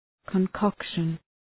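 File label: "concoction", English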